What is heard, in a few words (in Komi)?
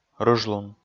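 "рыжлун", Komi, male; kpv audio dict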